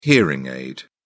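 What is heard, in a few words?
"hearing aid", British English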